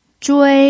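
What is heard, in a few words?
"zhui1", Mandarin Chinese pinyin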